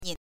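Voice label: "nin", Mandarin Chinese, neutral tone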